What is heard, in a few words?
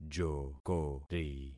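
"jokori", concatenated syllables; Affixing experiment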